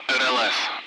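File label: "radar", Russian; Allied Flight; DCS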